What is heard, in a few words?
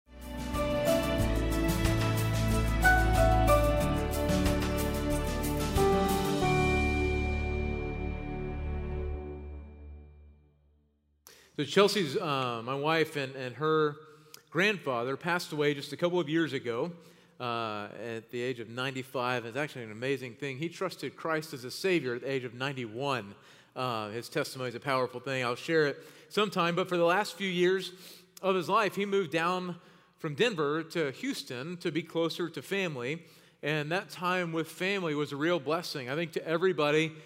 Sermon English